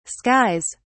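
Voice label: "skies", British English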